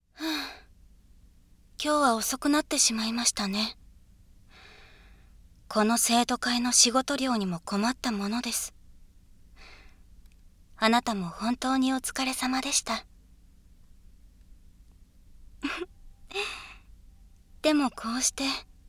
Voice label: 右 声のみ ノイズ除去なし

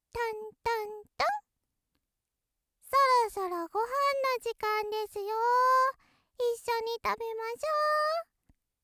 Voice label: ロリ ごはんだよ

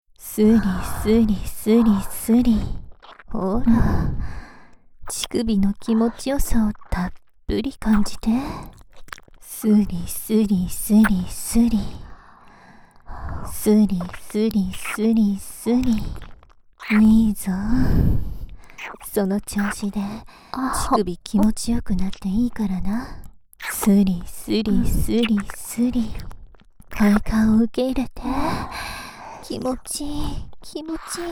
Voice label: 耳元 射精煽り (左耳 + 右耳舐め)

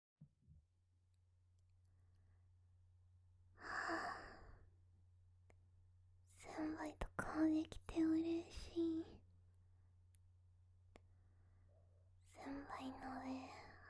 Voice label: 添い寝, ASMR

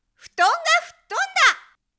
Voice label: 布団がふっとんだ！, 妹系／ぶりっ子／元気な子

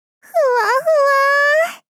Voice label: ふわふわ～ 少女 中音域

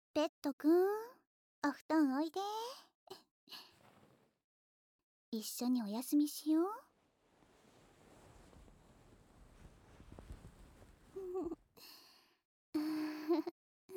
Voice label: 添い寝こしょこしょささやき＆ねっとり吐息

